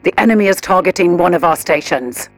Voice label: advisor notification station under attack, militarist; Stellaris; ethics